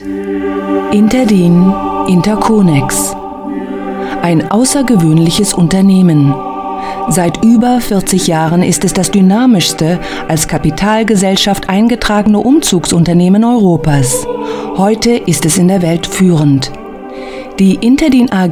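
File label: german narration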